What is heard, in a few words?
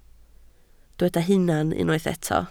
"hunan", Welsh